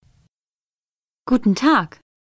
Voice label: german hello